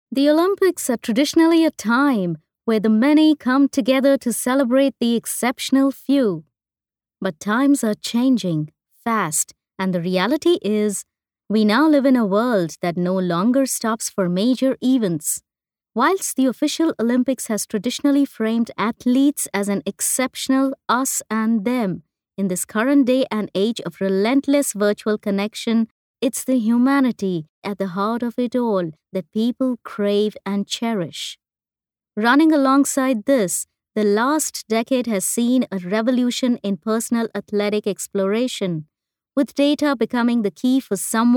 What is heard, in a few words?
english indian narration